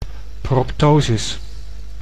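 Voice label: "proptosis", Dutch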